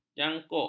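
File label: "janguk", Malay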